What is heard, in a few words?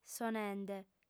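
"sonende", Sardinian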